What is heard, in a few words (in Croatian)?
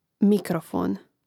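"mikrofon", Croatian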